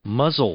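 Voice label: "muzzle", English; noun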